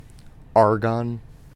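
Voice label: "argon", US English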